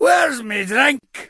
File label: Rifleman Pissed